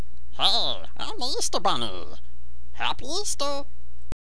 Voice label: easter bunny voice